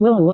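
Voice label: "wow", Indonesian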